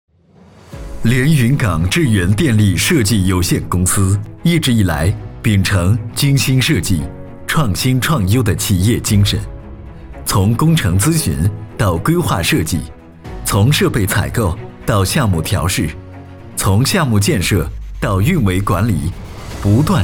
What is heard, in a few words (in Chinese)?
男 中文 宣传片 清晰流畅类